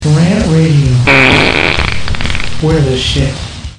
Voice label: Promo; Offending; RantRadio; RantMedia; Station Promos-Listener Submitted

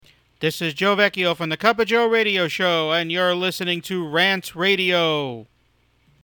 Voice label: Promo; RantRadio; RantMedia; Station Promos-Listener Submitted

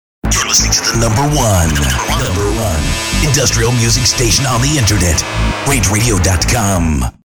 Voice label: Promo Synth and Sound; RantRadio; RantMedia; Station Promos-Listener Submitted

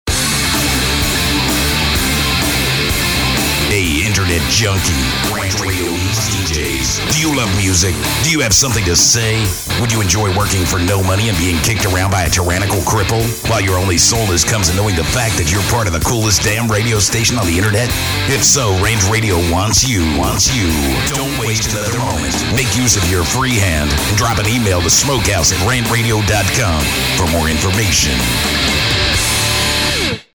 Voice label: Promo; RantRadio Needs DJs; RantMedia; Station Promos-Listener Submitted

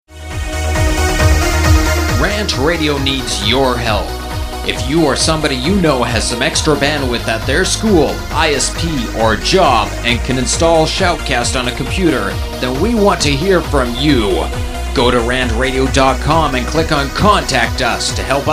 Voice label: Promo industrial psa; RantRadio; Station Promos-In House

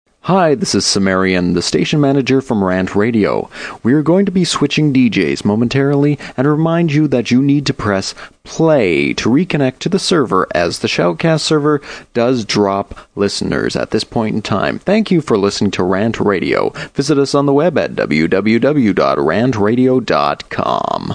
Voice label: Promo switch djs; RantRadio; Station Promos-In House

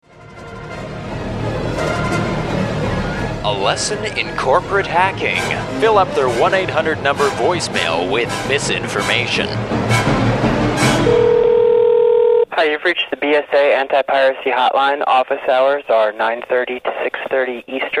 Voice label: Actual Phone Call; Skit; BSA

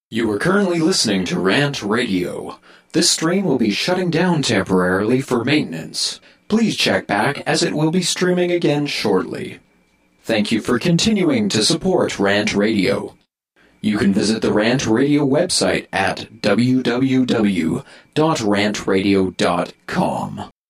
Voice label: Promo Shutting Down For Maintenance; RantRadio; Station Promos-In House

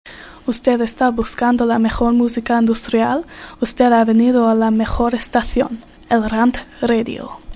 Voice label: Promo Language Spanish; RantRadio; RantMedia; Station Promos-Listener Submitted

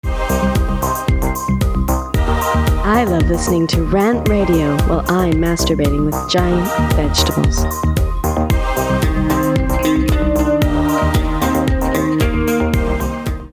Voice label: Promo Dirty Promo Girl Vegetables; RantRadio; Station Promos-In House

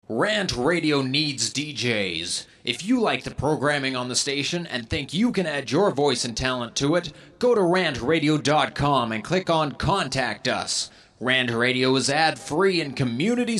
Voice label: Promo solo psa; RantRadio; Station Promos-In House

